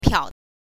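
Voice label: "piao", Mandarin Chinese, neutral tone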